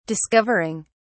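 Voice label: "discovering", English, British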